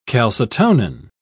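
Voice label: "calcitonin", English, British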